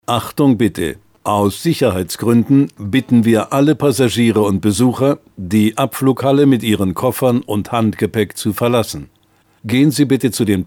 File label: locutor aleman german voiceover